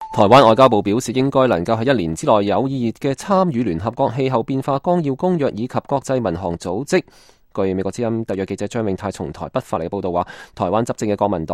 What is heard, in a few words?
cantonese